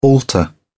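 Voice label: "altar", British English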